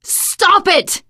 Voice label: friendly fire, teen girl voice; source engine; left 4 dead 2; survivor